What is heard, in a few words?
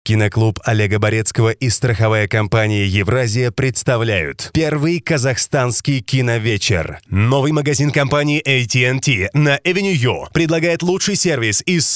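Russian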